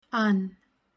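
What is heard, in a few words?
Ahn, pronunciation guide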